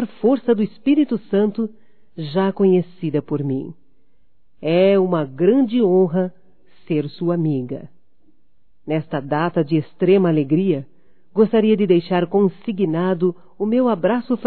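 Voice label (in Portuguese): EVANG ANIVERSÁRIO DE AMIGO, Feminino; Aniversarios; Telemensagens; Telemensagens 2017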